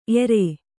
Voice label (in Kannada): "ಎರೆ", Kannada